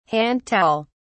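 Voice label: "hand towel", British English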